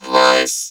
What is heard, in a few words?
"voice", vocal; Q Up Arts; Dream Experience; VOCOVOCAL